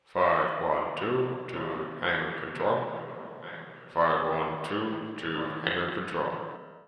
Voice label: veteran pa